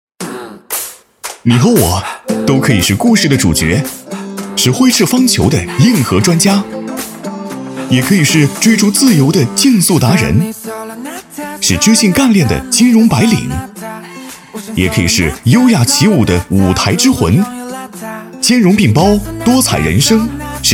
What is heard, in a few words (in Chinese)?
男 广告 激情活力有感情